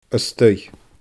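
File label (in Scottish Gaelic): "a staigh", Scottish Gaelic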